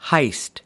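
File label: "heist", American English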